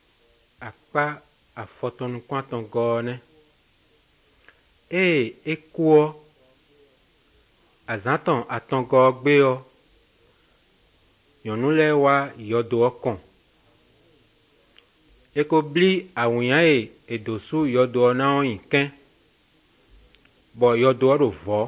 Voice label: good news, fon fongbe bible drama

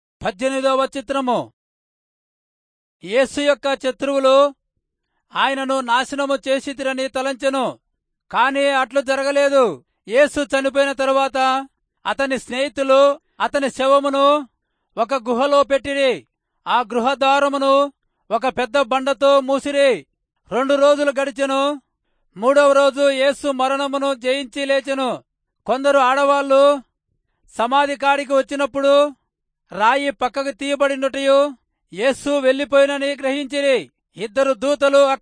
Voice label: telugu rayalseema; good news

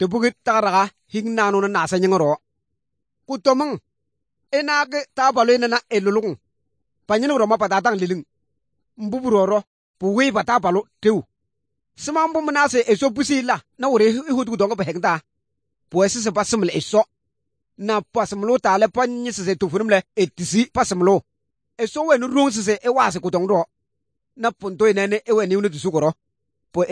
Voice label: good news, kabiye bible

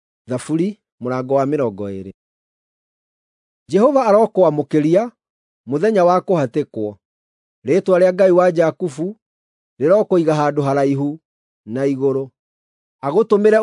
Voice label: psalms, gikuyu drama

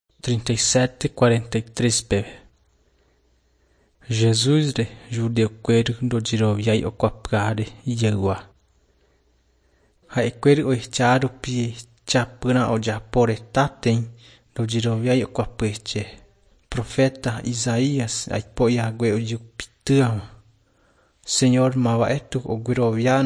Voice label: john guarani mbya, bible

